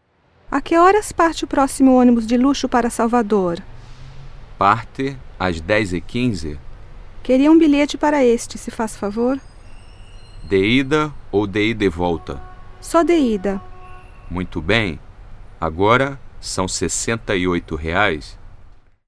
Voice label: Braz Portuguese